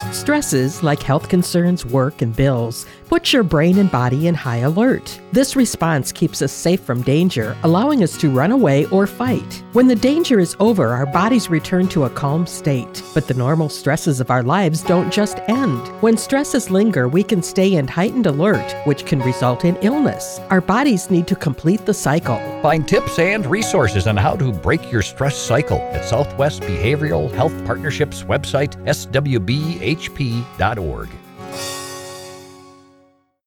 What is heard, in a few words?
Female; What is a Stress Cycle